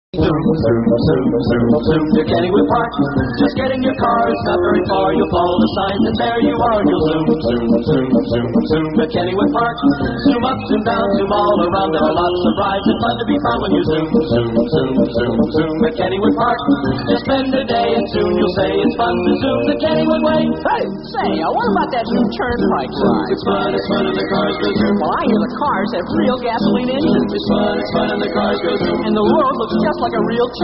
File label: concert spot, radio spots; pittsburgh; psychedelic promos and radio spots